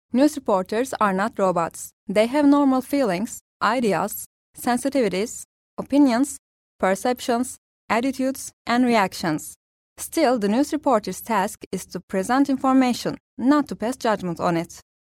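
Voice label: Turkish English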